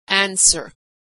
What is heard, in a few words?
"answer", pronunciation